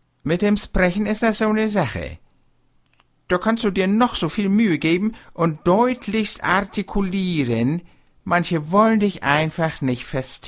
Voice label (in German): Dialekt